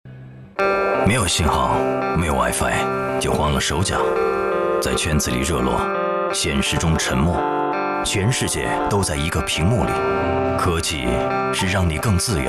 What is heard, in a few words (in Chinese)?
男 广告, 国语男声配音